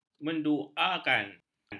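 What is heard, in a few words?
"menduakan", Malay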